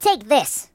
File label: Fire punch, voices Mobster